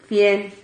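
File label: "fien", Spanish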